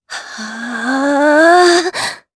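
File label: Vox Casting Japanese; Lorraine; kings raid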